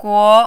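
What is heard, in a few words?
"guo", second tone, Mandarin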